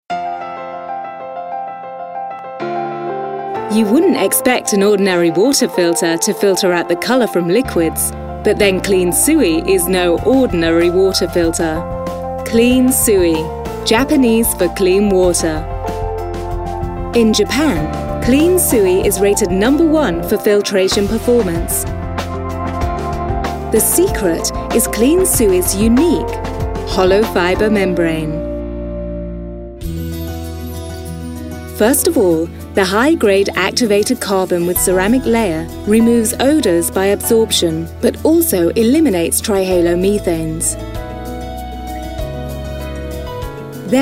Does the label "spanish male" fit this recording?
No